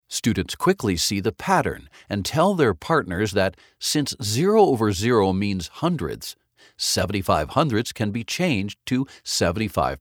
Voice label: eLearning General